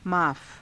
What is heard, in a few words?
"maafa", Hindi; माफ़; [形]許された